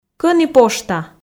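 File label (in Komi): кӧні пошта, Komi, female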